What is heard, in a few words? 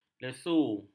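"lesu", Malay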